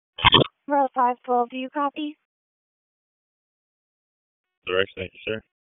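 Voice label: Police Northeast District Dispatch, p25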